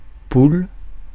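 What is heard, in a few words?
"poule", French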